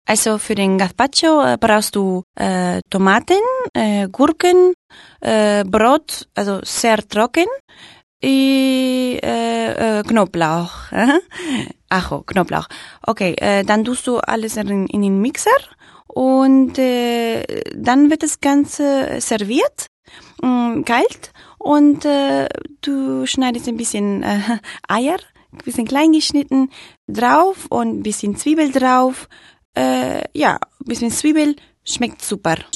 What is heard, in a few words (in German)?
Rezept dt. mit span. Akzent